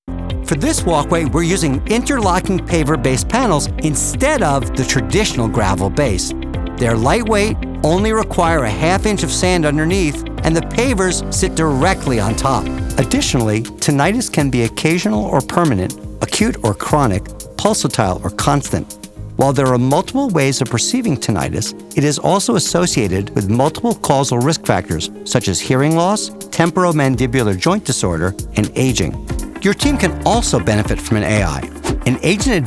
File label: Narration